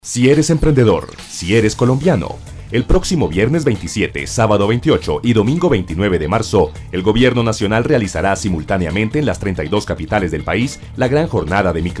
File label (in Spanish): promo banca radionica